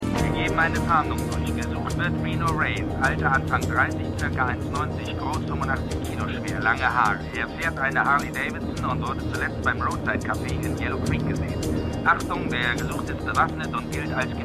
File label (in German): Polizeifunk; Renegade